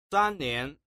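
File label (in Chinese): san nian, Chinese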